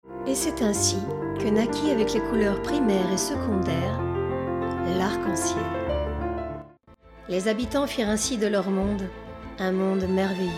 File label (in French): Narration Conte Voix naturelle et joyeuse; Magicienne